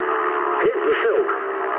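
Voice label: English bailout mood low; speech; War Thunder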